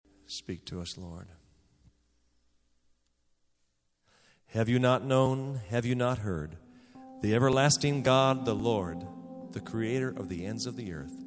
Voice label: Narration; Christian Music